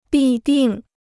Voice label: "必定", Chinese